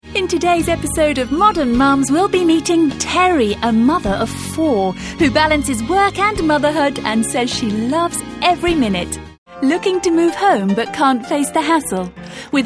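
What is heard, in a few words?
British, TV commercial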